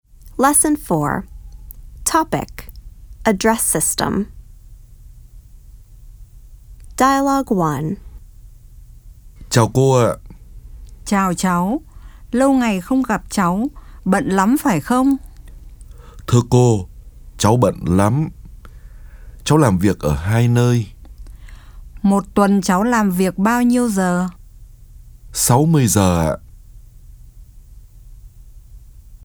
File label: Dialog